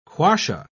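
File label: "quassia", British English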